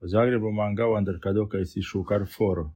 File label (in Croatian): romski; POP UP Zagreb